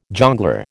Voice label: "jongleur", American